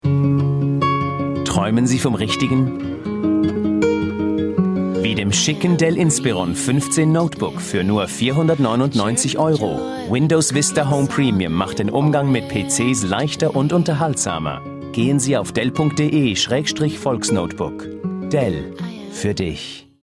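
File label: German Commercial; GoLocalise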